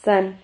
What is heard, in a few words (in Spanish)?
"zan", Silabas